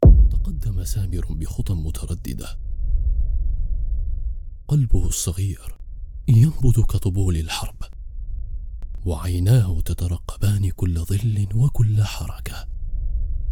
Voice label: Dramatic Podcast Arabic Voiceover; demos